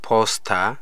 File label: post mail, amharic